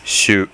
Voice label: "syu", hiragana